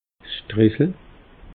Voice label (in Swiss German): "stressel", alsace